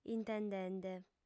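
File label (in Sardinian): "intendende", female; Ideale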